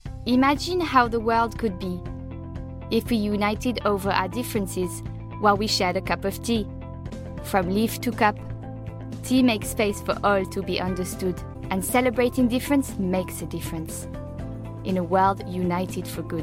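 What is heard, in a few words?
Canadian French, Accented English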